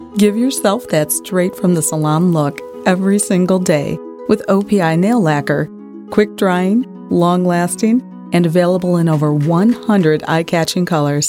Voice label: Natural fun Enthusiastic